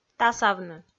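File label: "тасавны", Komi, female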